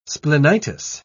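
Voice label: "splenitis", British English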